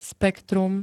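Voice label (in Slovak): "spektrum", Slovak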